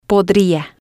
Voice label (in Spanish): podría, Spanish